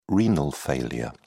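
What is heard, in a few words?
"renal failure", English, British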